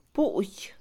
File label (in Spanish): Mixe, Mujer; tabla; Casa; INALI